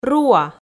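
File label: "руа", Komi, female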